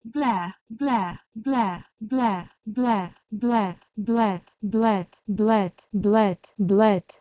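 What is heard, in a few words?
Proto-Indo-European bhleh1 to Latvian blet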